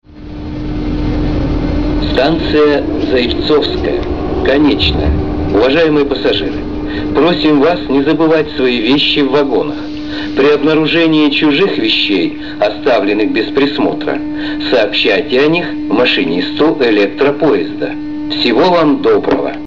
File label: male